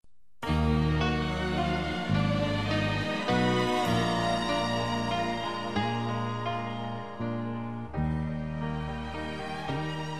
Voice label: Aniv. de 15 Anos F Amiga

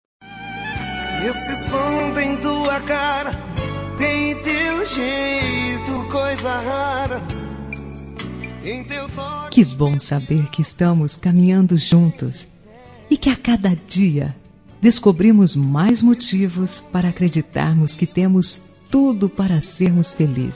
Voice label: Romântica Suave

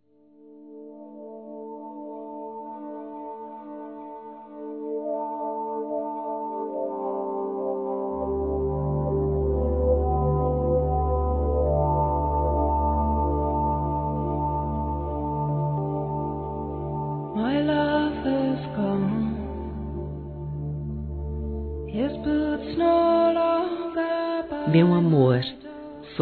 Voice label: Namorados pra Noivo F

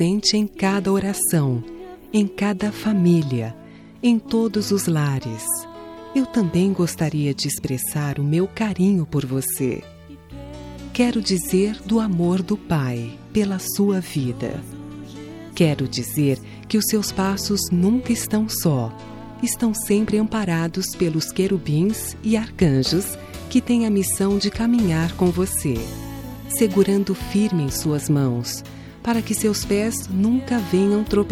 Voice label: Feliz Natal Evangelico, F

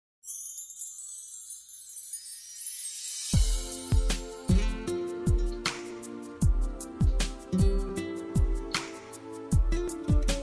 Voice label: Romântica pra Esposa, M

